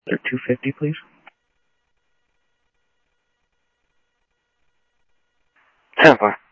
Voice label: scanner audio, Sheriff